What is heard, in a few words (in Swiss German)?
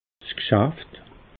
"gschaft", alsace